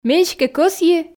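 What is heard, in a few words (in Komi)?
ме эськӧ кӧсйи, Komi, female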